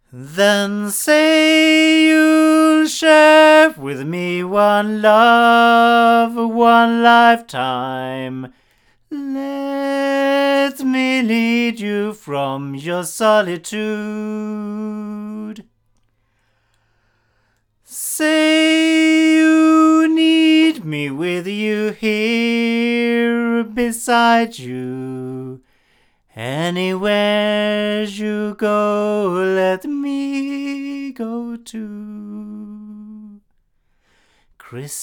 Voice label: male; microphones